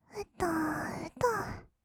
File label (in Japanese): うとうと, kawaii voice; ASMR; 色々なワンショット